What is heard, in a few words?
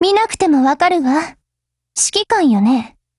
HELLO JP; GF Alarm; AK12